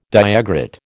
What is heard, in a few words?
"diagrid", American